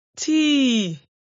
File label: "tíì", Yorùbá; thee-in-andere-talen